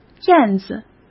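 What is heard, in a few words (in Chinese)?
"燕子", Mandarin Chinese; chinese-flashcards; hsk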